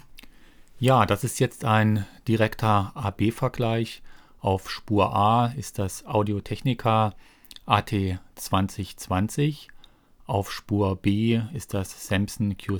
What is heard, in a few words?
UR22 sample; Screencasting; Screencasting für Einsteiger und Anfänger